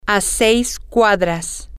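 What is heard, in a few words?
6 Blocks Away, Spanish, female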